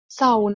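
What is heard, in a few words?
"seh2un", Proto-Indo-European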